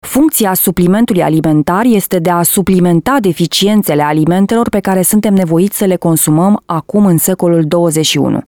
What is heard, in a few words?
Female, Romanian